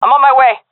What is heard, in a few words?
omw, seal fem; vo; Counter Strike Model Pack; CS:GO